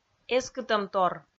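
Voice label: "эскытӧмтор", Komi, female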